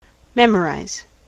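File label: "memorize", US English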